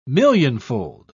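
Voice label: "millionfold", English, British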